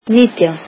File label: icelandic nineteen